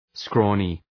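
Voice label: "scrawny" English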